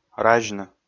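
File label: "разьны", Komi, male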